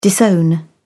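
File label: "disown", English, British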